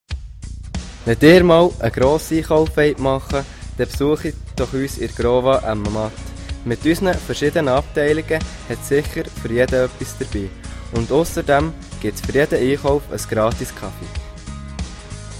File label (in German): Werbespot; Growa Emmenmatt